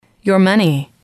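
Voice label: "money", English, female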